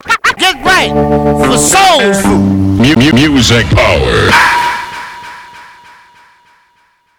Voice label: music power skit; soulfood

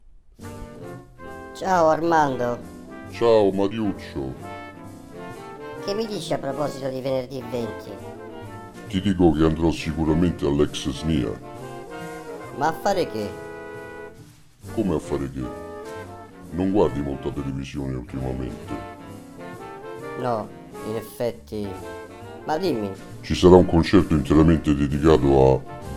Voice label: Spot Jazz Al Popolo

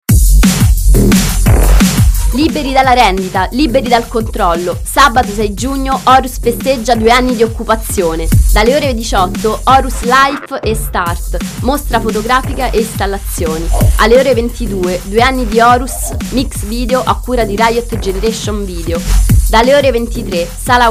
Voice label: spot; horus

